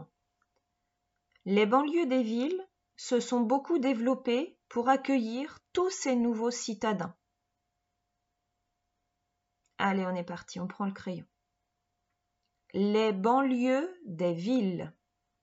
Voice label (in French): Dictée enregistrement vocal; Flash